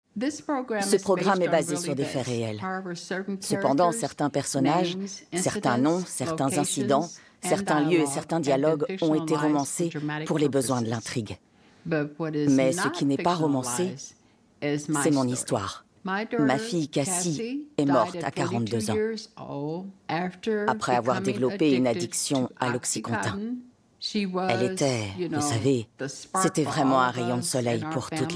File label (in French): voice over; Painkiller